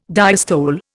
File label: "diastole", Indian